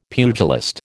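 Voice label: "pugilist", American English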